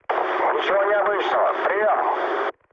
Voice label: chat, speech ru; War Thunder

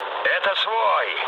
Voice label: player friendly fire, mood low, speech ru

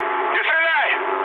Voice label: player friendly fire, mood high, speech ru

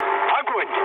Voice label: attack position, mood low, speech ru; War Thunder

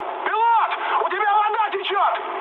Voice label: damaged water, mood high, speech ru; War Thunder

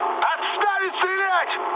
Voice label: player friendly fire, mood high, speech ru; War Thunder

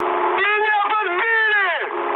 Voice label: aircraft damaged, mood high, speech ru

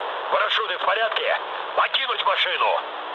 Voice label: bailout, mood low, speech ru; War Thunder

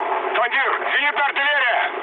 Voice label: damaged aa, mood low, speech ru; War Thunder

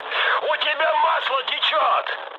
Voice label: damaged oil, mood high, speech ru; War Thunder

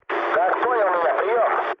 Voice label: chat, speech ru; War Thunder

